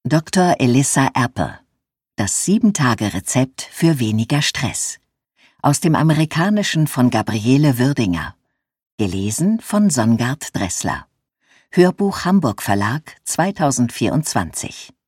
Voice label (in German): Ansage; Elissa Epel; Das 7-Tage-Rezept für weniger Stress (2024); Hörbuch